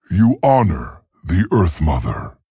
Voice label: Male Tauren Well Played

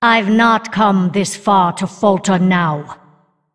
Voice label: Female Undead

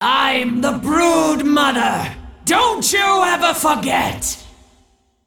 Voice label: Female Dragon Play

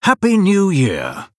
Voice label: Male Blood Elf Happy New Year

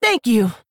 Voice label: Female Orc, Thanks

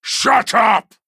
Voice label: Male Tauren Attack

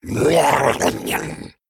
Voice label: Male Murloc Play

